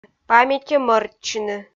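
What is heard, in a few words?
"паметьӧ мӧрччыны", Komi, female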